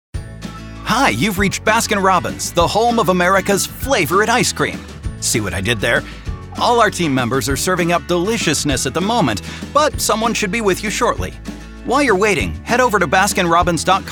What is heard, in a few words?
Telephony